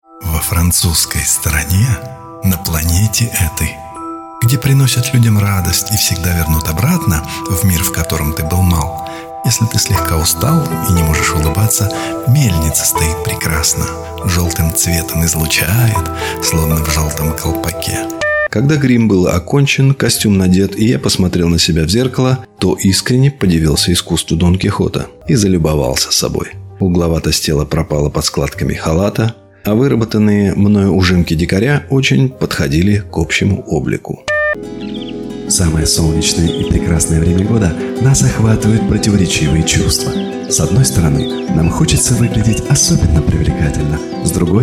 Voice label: russian voice over male commercial